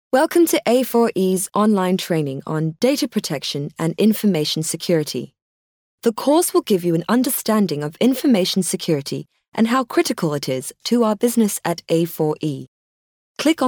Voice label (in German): Sprecherin Englisch UK; Nachvertonung Film